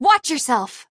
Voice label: ping danger area, female